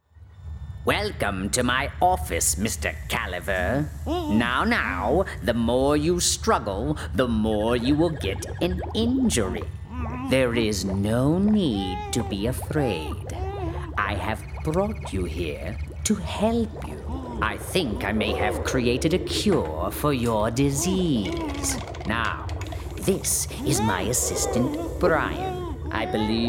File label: Character